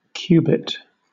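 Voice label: "cubit", British English; pronunciations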